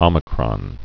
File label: "omicron", Greek alphabet